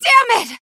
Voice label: pain death